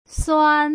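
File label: "suan", Putonghua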